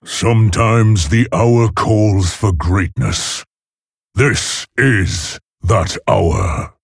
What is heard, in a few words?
Male Orc Bark; Varok Saurfang